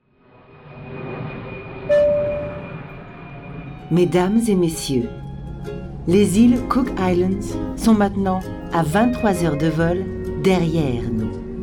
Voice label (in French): atelier croquis francais